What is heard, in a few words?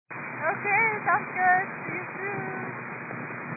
122 725MHz, scanner radio